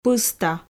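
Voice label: "пыста", Komi, female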